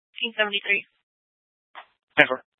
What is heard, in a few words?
Scottsdale Police, Simulcast